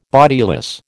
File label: "bodiless", American